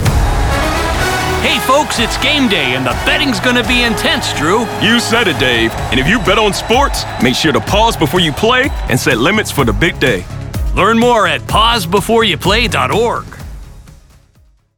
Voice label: Radio; PBYP Warmup